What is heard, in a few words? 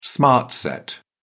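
"smart set", English, British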